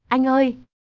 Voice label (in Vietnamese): tieng noi anh oi, cac giong nu noi anh oi